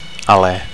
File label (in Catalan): "ale", Catalan; (m) breath